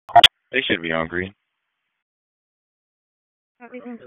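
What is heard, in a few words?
EMS Dispatch, p25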